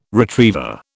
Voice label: "retriever", British